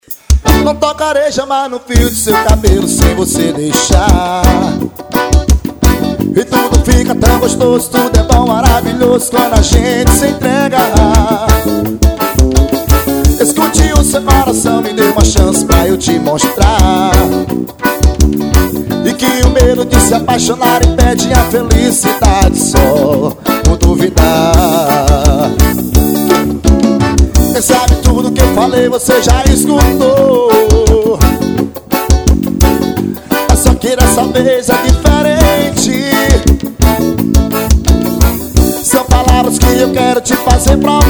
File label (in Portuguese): spots comerciais; produtora diferencial; chamadas p eventos; whatsapp